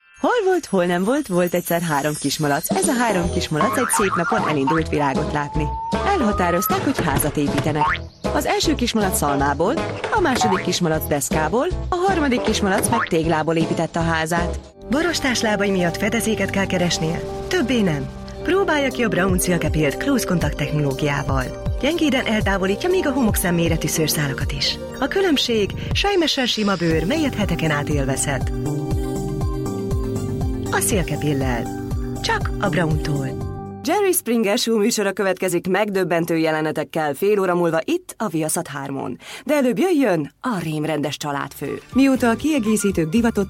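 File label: HUN, Hungarian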